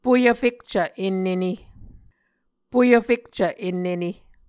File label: "poyvfekcv ennene", Muscogee